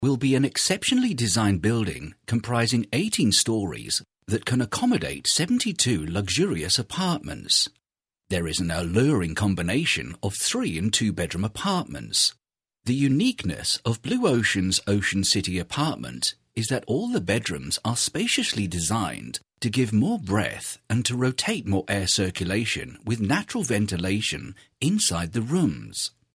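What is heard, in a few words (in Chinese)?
外籍英式男 纪录片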